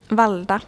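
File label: "Vallda", Swedish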